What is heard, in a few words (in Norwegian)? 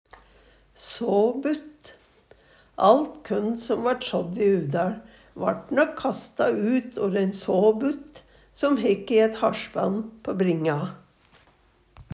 sabutt, Numedalsmål